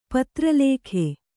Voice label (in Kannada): "ಪತ್ರಲೇಖೆ", Kannada